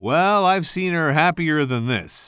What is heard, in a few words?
commentator